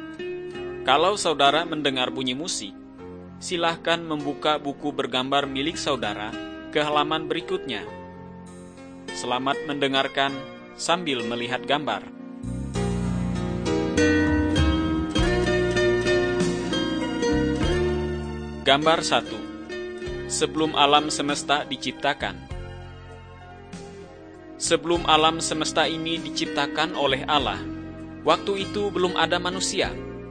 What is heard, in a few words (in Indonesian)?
kabar baik good news bahasa indonesia